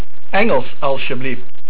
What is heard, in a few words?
Engels, Dutch